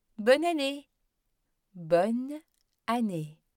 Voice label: french happy new years